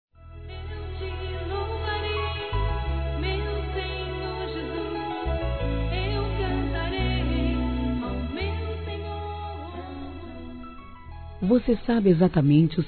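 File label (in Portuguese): Trilha ANIVERSÁRIO MÃE, Gospel, fem; Telemensagens; Telemensagens 2017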